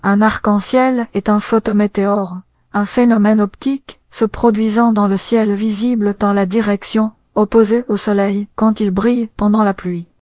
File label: speaker, fr FR; piper-voices